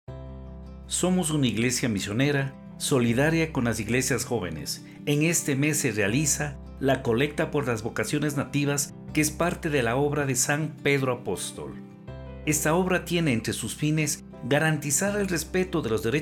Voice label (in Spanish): spot M; Vocaciones Nativas